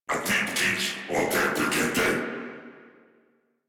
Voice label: Patrol, Battle Barks